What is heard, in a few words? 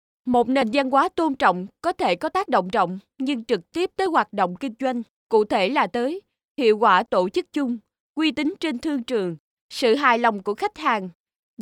Vietnamese F Female